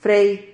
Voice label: "frey", Silabas

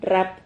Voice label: "rrap", Silabas

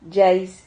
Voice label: "llais", Silabas

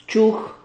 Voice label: "chuj", Silabas

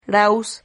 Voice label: "rahus", Silabas

